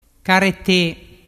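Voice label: "carete", Vernazzese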